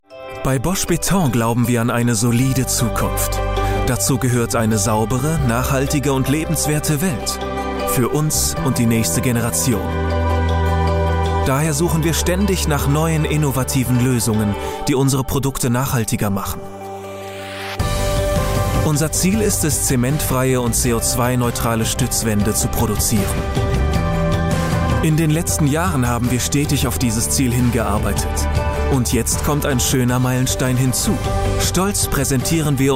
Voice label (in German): imagefilm verheissungsvoll